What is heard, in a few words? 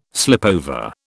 "slipover", British